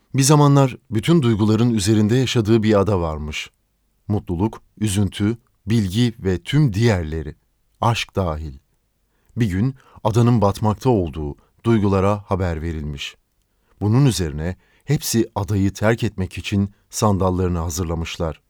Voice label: turkish audiobooks